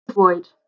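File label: "swoid", Proto-Indo-European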